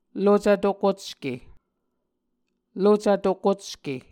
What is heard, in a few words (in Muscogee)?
"lucv tokocke", Muscogee; Mvskoke Words with Voicing Consonants; A Dictionary of Creek/Muskogee; SIL International; Onvkv Ohhvyayices